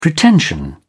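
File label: "pretension", British English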